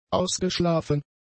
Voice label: "ausgeschlafen", German; adjectives

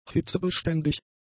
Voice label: "hitzebeständig", German; adjectives

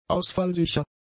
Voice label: "ausfallsicher", German; adjectives

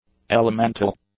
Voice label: "elemental", English; adjectives

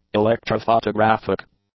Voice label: "electrophotographic", English; adjectives

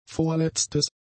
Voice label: "vorletztes", German; adjectives

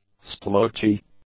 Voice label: "splotchy", english; adjectives

